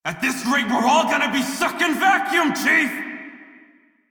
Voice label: Crew Ship Low Male en; Dialog; Warframe; Railjack Crew; Red Veil